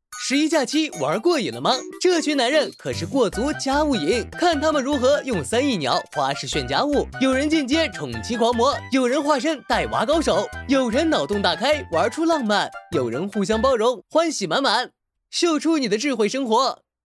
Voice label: 广告男年轻时尚

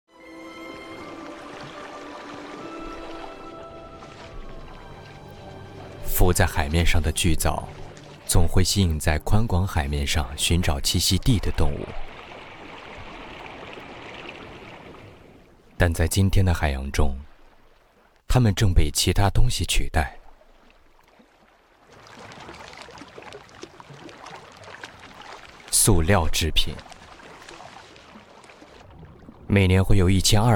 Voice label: 纪录片; 地球脉动

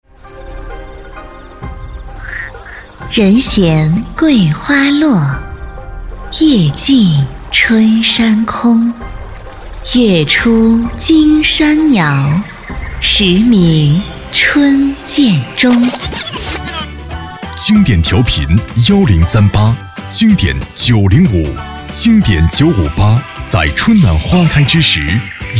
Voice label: 电台 准点报

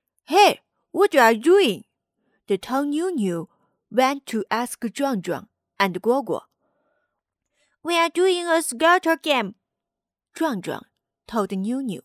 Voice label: 中英文绘本 英文

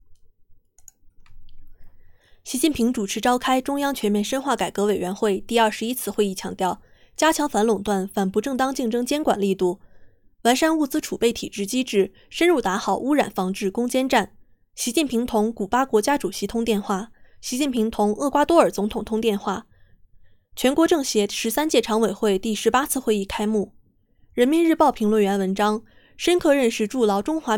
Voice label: 新闻

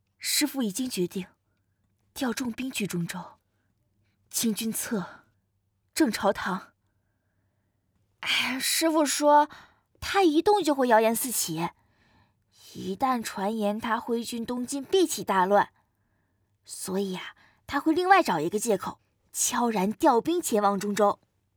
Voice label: 少女

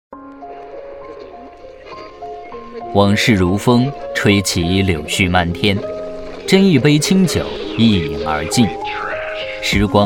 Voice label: 自然 讲述 纪录片; NBA韦德

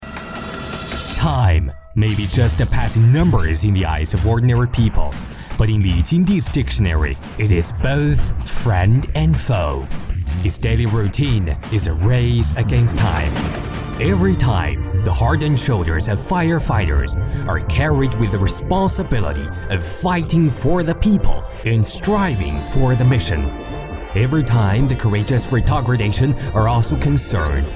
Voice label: 英文配音; 微光者

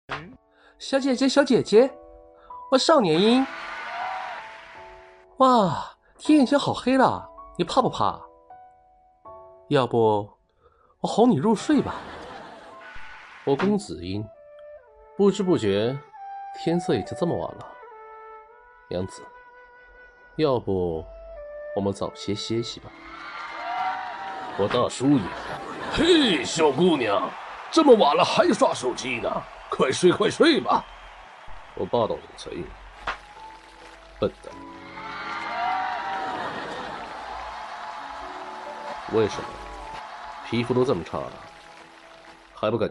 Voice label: 中文样音配音声线

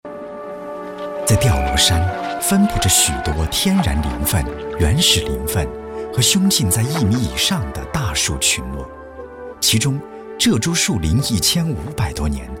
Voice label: 热带雨林记录风光片自然叙述

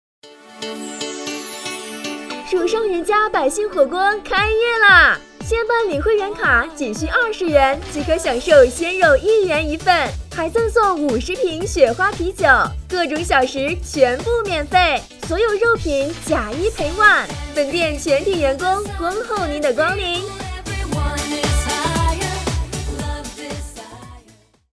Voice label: 试音促销火锅店

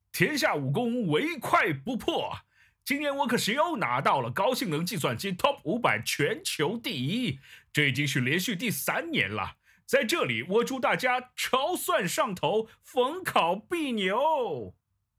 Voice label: 卡通 动画; 联想超算牛

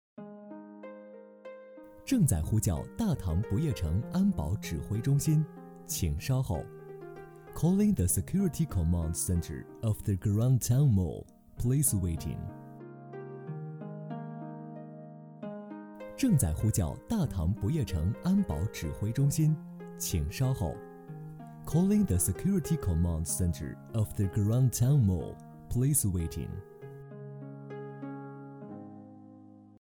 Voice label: 自然沉稳; 大唐不夜城

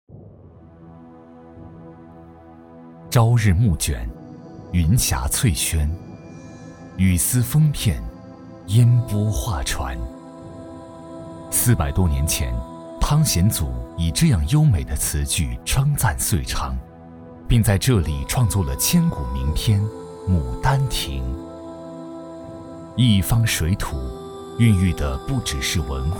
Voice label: 纪录片娓娓道来

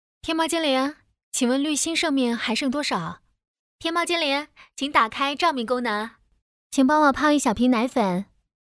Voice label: 成人妈妈自然

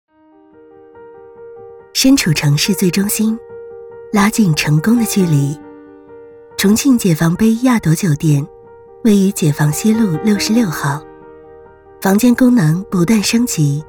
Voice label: 酒店宣传片

